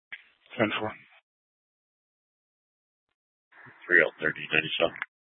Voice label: PD Dispatch

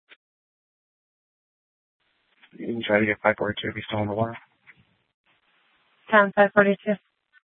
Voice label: Sheriff, scanner

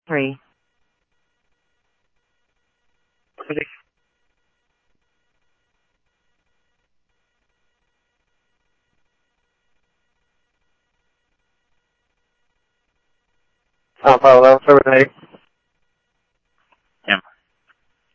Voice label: Police, scanner audio trunk; H-Scottsdale

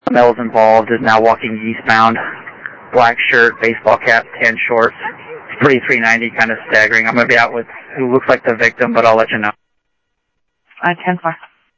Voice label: Phoenix PD, scanner audio

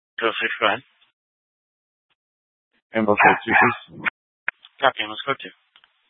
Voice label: Fire Departments Mesa Simulcast; TOPAZ

